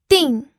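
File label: "ding", pinyin, fourth tone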